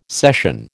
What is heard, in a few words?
"session", American